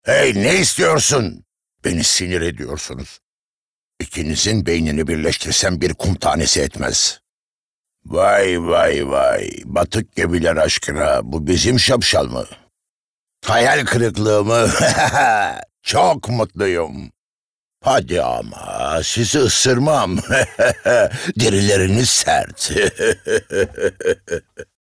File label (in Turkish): Animasyon